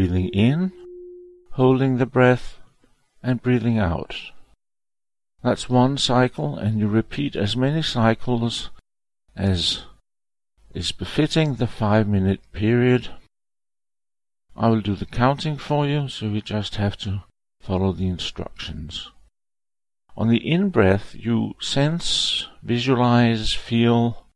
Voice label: ENGLISH KUNDALINI PRANAYAMA